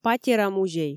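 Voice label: "патера-музей", Komi, female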